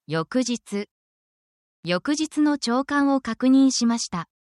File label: japanese days time